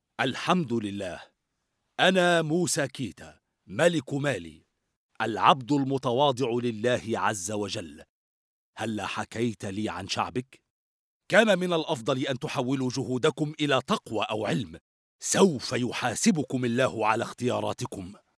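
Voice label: arabic male narration; voice demos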